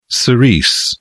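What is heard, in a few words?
"cerise", British English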